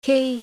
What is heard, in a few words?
"khi", Vietnamese